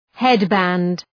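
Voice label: "headband", English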